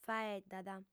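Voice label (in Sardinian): "faeddat", female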